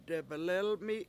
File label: "debelElmi7", Washo; type of basket